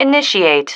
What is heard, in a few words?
"initiate", female